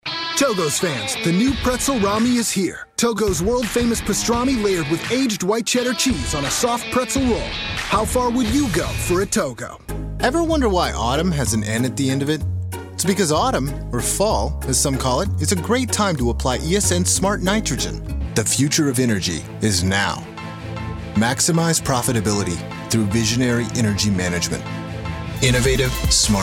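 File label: Commercial, voice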